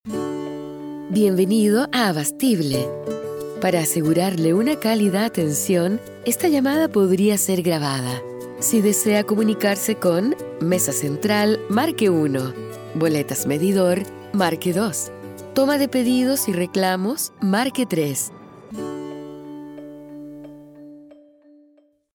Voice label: IVR; Abastible